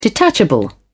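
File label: "detachable", British English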